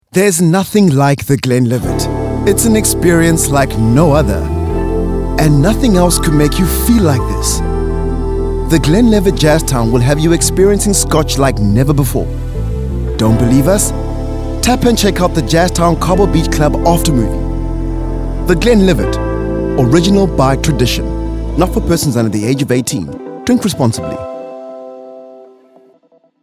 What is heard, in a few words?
Cool Jazzy VO